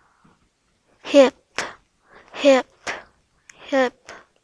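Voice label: "hip", spoken word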